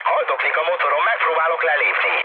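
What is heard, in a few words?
Hungarian damaged engine mood med, speech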